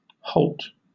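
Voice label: "halt", British English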